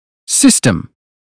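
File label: "system", British phonetics; 英语音标发音（剑桥版）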